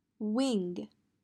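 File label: "Wing", English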